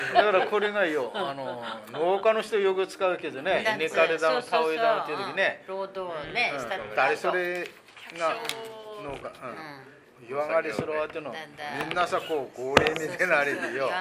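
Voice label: yoagari, Minamiyamagata dialect Japanese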